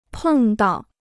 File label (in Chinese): "碰到", Chinese